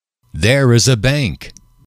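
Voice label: "there is a bank", English, male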